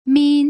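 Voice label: "min", Mandarin Chinese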